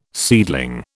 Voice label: "seedling", British